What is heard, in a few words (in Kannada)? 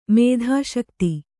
"ಮೇಧಾಶಕ್ತಿ", Kannada